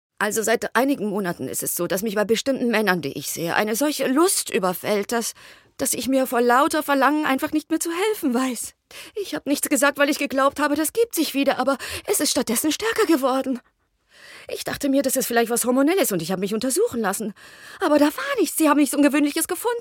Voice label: Realsynchron; der Hörverlag / hr2 Kultur - Der geheimnisvolle Briefschreiber - Frühe Erzählungen, Anfang

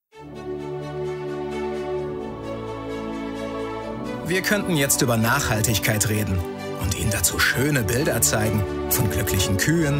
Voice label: Werbung; Kaufland

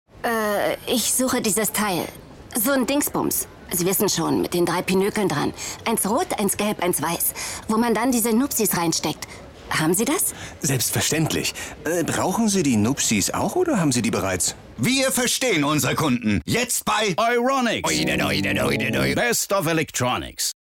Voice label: Funk Werbung Rolle; Euronics

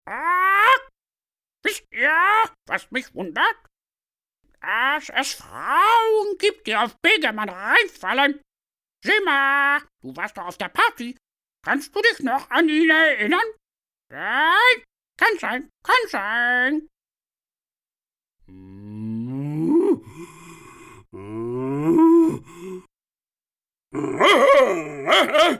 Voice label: trickstimme

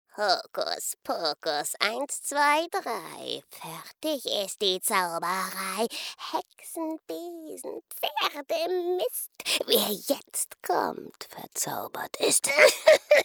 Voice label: hexe game hoerspiel

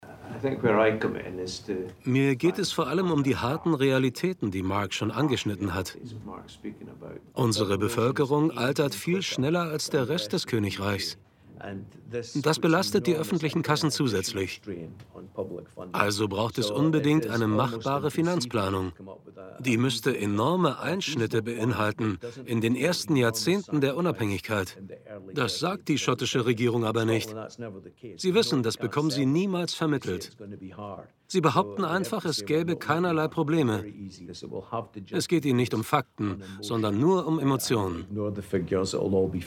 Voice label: Sprachproben Sprachprobe Voiceover; Schottland in der Kriese; LOFT VOICES

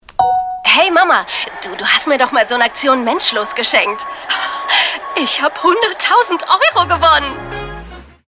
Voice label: Werbung, Commercial (Werbung), German; Aktion Mensch

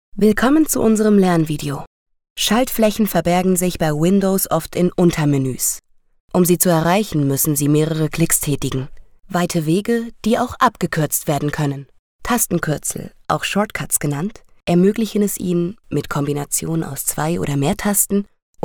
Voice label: eLearning Tastenkürzel; LOFT VOICES

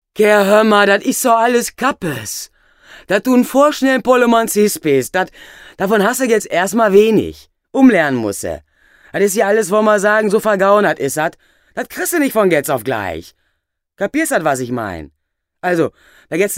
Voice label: Ruhrpott rauh; LOFT VOICES

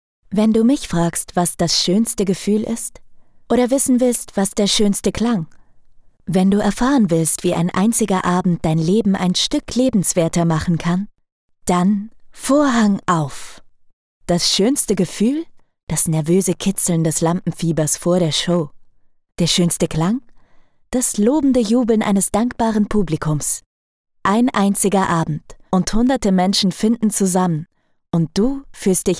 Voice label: Werbung Theater

